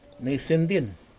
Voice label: fish anus, Aneityum